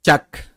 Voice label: "Chak", Maya; INALI